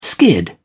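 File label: "skid", British English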